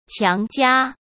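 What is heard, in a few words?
"强加", Mandarin Chinese; chinese-flashcards; hsk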